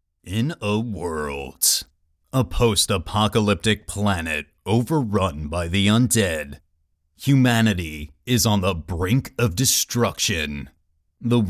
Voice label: Movie Trailer Style